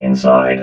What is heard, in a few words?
"inside", robot